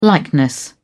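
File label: "likeness", British English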